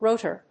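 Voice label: "rotor", English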